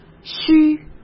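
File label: "须", Mandarin Chinese; hsk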